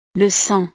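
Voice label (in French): "le sang", French; La sangre; partes del cuerpo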